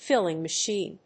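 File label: "filling machine", English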